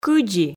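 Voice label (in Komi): "кыдзи", Komi, female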